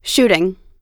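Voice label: "shooting", American English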